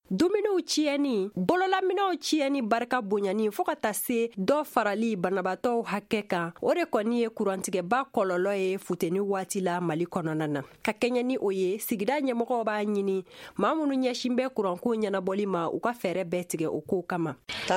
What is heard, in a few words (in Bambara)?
magazine bamanankan